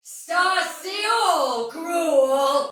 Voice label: sight lost shout soldier female, battle barks; Dialog; Sinecure